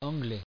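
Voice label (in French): "anglais" French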